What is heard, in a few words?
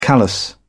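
"callus", British English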